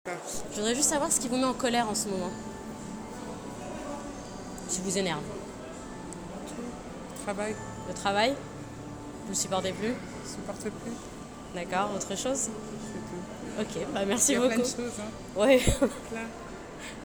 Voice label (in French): paroles colere travail